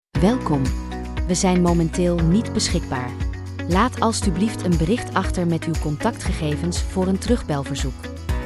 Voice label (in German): ki-ansage